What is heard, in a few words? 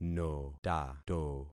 "notato", concat syllables; Affixing experiment